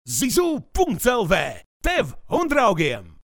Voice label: latvian male; dictor